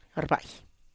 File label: "rrbaih", lay